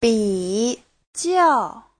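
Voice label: "bǐjiào", Mandarin Chinese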